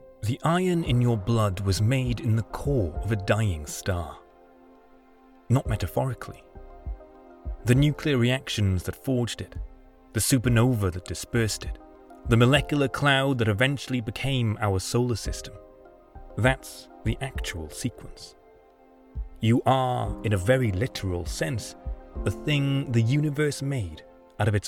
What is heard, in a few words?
Documentary